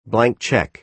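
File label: "blank cheque", American English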